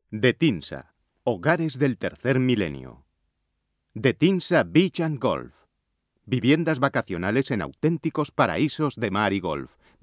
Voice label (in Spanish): locutor castellano